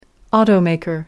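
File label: "automaker", English, British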